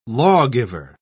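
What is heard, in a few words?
"lawgiver", British English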